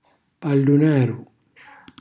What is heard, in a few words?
"pallunaru", Calabrese; cucinacalabrese; glossario